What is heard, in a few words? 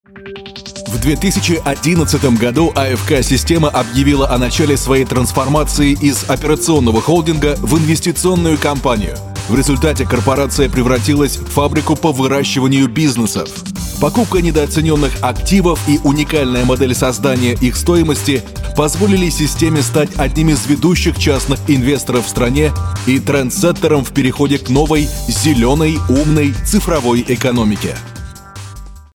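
Russian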